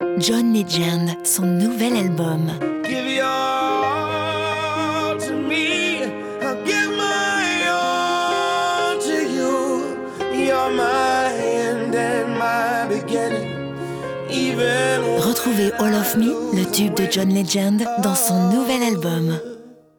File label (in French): annonce posee, voix off comédienne